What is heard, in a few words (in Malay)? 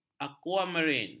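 "akuamarin", Malay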